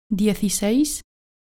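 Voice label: "dieciseis" Spanish; speaking drill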